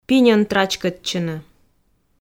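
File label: "пиньӧн трачкӧдчыны", Komi, female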